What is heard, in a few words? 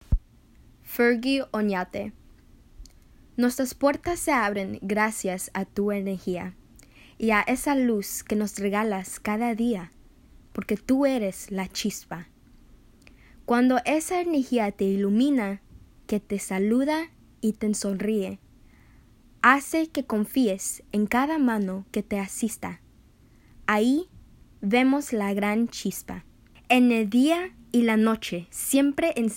SPANISH